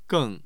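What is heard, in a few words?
"geng", Mandarin Chinese, tone 4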